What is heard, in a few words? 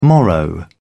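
"morrow", British English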